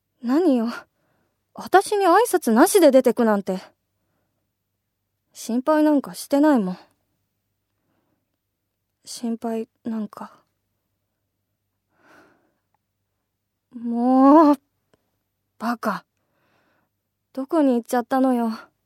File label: female voice